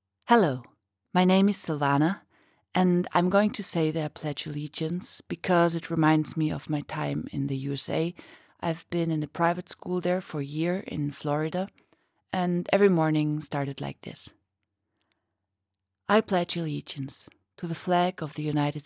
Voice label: English

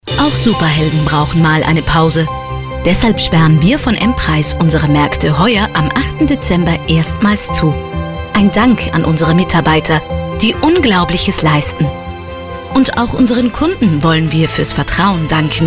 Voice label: SPOT; MPREIS

